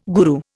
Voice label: "guru", Indian